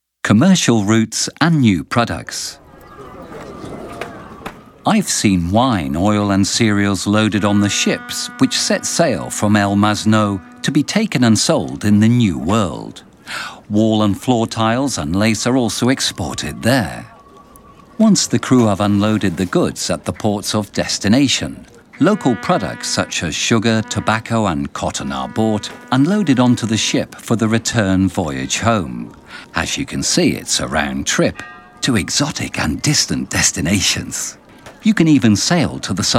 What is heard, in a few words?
audioguia english